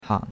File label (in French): "hang3", chinois; Cours-de-Chinois